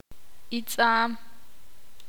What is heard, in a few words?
ixil; pensar